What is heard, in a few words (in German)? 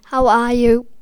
Wie geht es dir, englisch